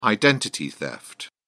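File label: "identity theft", British English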